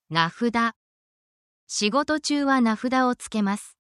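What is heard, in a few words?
Japanese word, essential Japanese phrases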